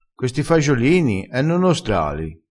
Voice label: "nostrale", crespinese

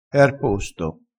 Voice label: "ar posto", crespinese

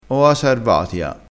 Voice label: "oa sarvatia", crespinese

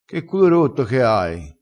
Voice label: "ave r culo rotto", crespinese

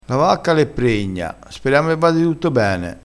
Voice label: "pregna", crespinese

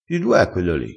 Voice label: "du", crespinese

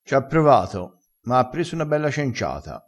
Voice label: "cenciata", crespinese

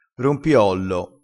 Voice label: "rompiollo", crespinese

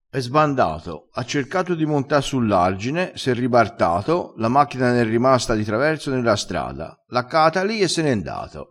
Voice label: "caato", dialettale crespinese

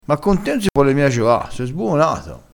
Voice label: "sbuonato", crespinese

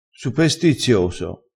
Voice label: "supestizioso", crespinese

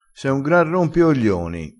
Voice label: "rompioglioni", crespinese, vocabolario dialettale

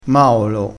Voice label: "maolo", crespinese

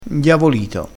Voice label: "indiavolito", crespinese, vocabolario dialettale

